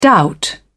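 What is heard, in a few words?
"doubt", British English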